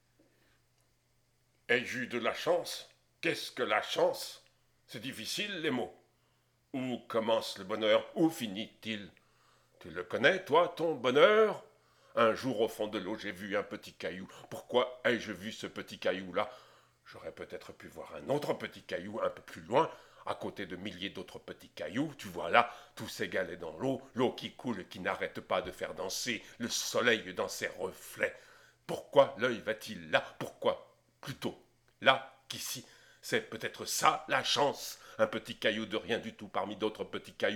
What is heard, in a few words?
Narration Vocal, Poesies; Petit cailloux